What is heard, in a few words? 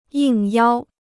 "应邀", Chinese